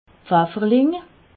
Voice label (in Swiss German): "pfifferling", alsace